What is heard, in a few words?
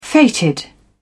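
"fated", British English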